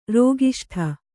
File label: "ರೋಗಿಷ್ಠೆ", Kannada